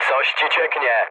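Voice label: tank damaged, mood low, Polish speech; War Thunder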